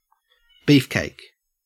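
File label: "beefcake", Australian English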